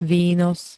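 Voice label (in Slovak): "výnos", slovenské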